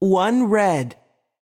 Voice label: voice Over, roulette; europeanroulette3